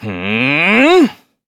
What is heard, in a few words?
Vox Casting, kr; voices; heroes; Kibera; kingsraid